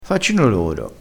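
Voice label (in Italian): "faccino", vocabolario dialettale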